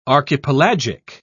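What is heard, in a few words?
"archipelagic", English, British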